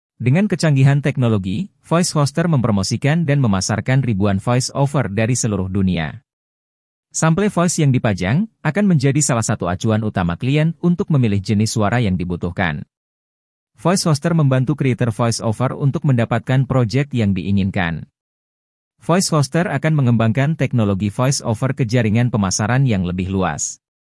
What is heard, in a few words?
AI Male Indonesia